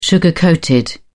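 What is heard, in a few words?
"sugar-coated", British English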